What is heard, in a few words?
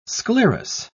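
"sclerous", British English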